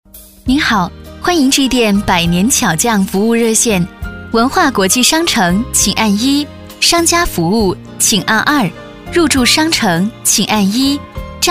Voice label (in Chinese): 彩铃 甜美; 百年巧匠